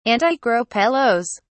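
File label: "antigropelos", British English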